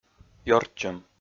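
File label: "ёрччӧм", Komi, male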